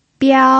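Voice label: "biao", pinyin, first tone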